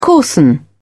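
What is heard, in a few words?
"coarsen", British English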